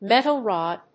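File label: "metal rod", English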